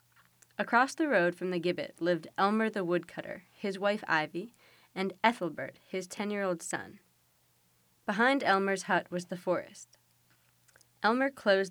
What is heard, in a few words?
MD46 Vocal